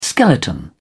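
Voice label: "skeleton", British English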